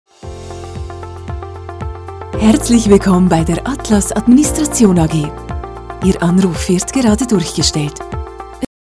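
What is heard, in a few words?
german switzerland interactive voice response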